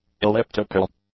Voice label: "elliptical", English; adjectives